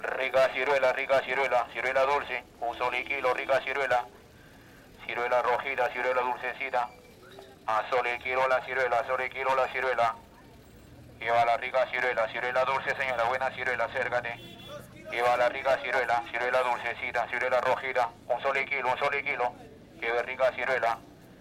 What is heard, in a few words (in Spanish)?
VENDEDOR DE CIRUELAS CON MEGÁFONO SATURADO LAMBAYEQUE